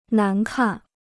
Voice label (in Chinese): "难看", Chinese